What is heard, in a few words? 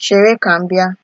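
"sherekambia", Nigerian Pidgin, female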